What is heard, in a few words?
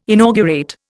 "inaugurate", Indian